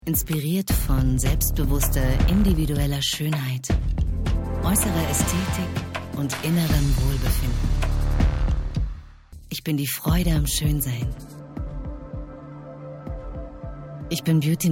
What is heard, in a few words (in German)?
Imagefilm; Dr. Grandel